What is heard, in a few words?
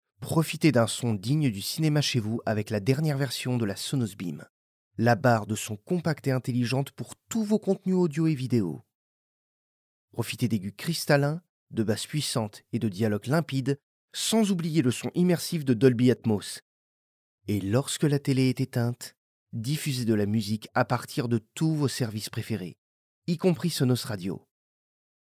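French France, male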